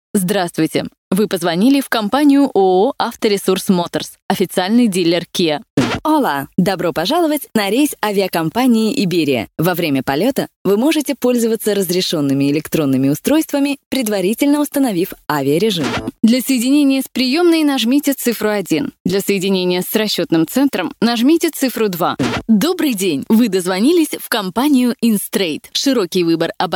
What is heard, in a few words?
rus